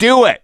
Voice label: misc directional, voice; gambler